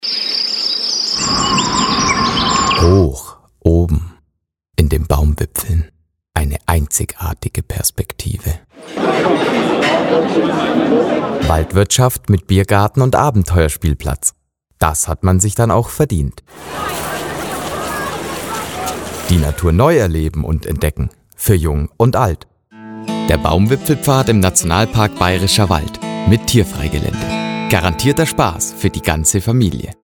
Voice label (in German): Werbung; Erlebnis Akademie